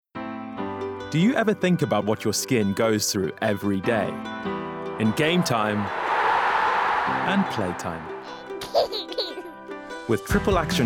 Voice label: Commercials Montage

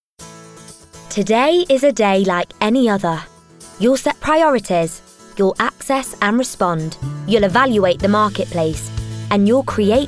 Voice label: Corporate Reel

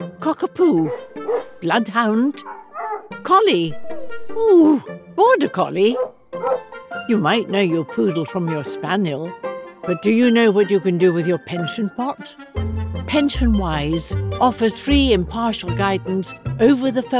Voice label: Fun, Quirky, Trustworthy; PensionWise

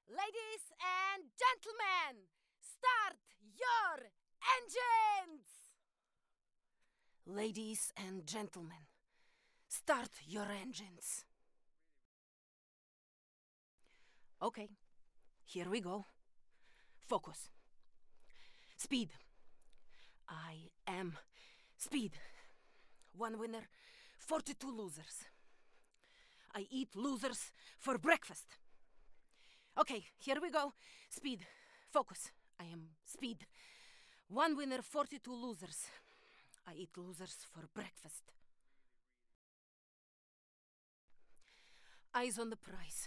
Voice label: Eastern European, gaming

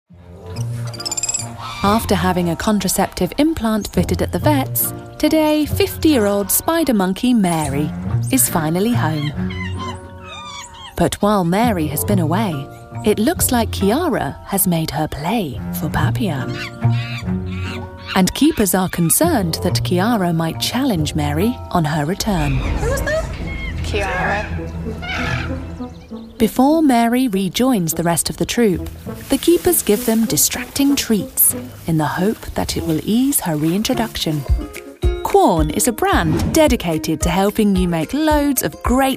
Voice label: Narrative

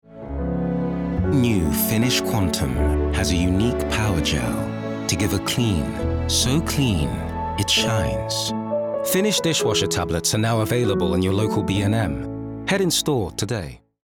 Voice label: Commercial; Finish

